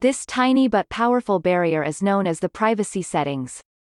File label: Monologue; Avie